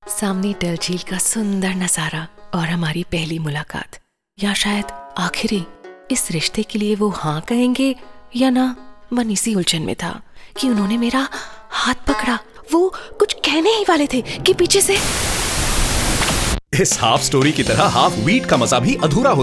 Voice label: female voice; Mcvities